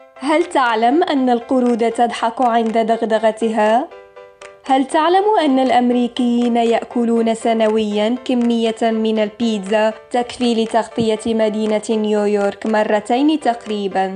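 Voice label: Arabic, female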